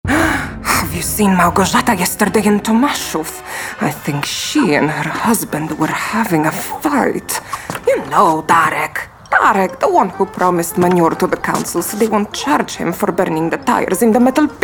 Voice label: Animation; BOGNA